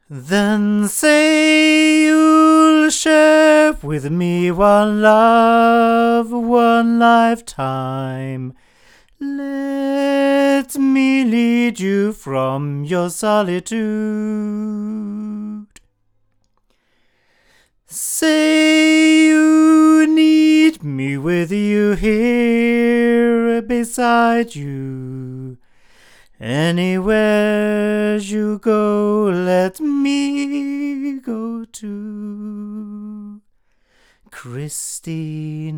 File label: male; Violet Flamingo jr; microphones; violet designs